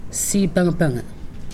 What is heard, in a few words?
"rib", Pingelapese